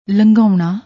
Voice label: "langaouna", Punjabi; ਲੰਘਾਉਣਾ

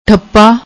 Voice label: "thappa", Punjabi

